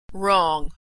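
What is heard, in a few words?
"wrong", American English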